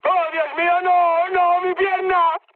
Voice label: damaged pilot, mood high, speech; War Thunder

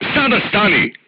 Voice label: voice message short stop, crew tanks serbian

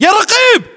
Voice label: rank sergeant, localized english battlechatter

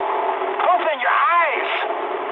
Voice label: eng player friendly fire mood high, speech eng

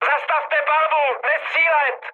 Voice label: player friendly fire, speech cze, mood high

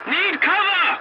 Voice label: voice message cover me, english; War Thunder

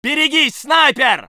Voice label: voice sov infantry

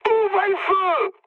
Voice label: attack position mood high, speech fr; War Thunder

